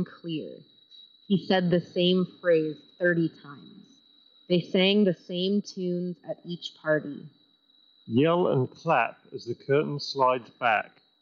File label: Headphones Street microphone sample; Bose QC Ultra